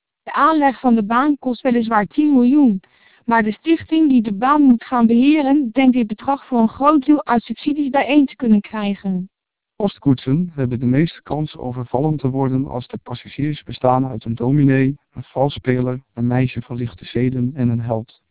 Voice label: twelp1600 du short, vocoders lowrate

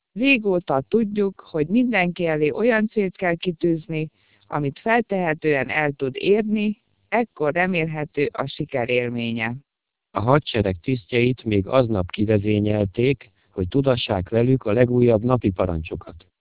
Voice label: Hungarian; TWELP DMR; vocoder

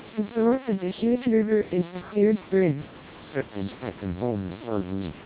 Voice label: short snr 10 db, TWELP 300 bps Vocoder; Input speech (SNR=10dB); NCSE Disabled

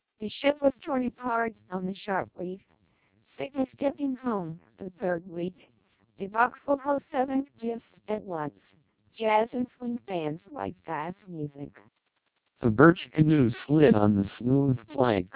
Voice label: American, speech, Vocoder; TWELP 400 bps

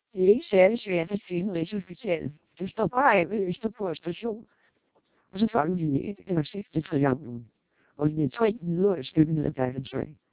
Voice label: twelp400 da, speechsamples; Danish

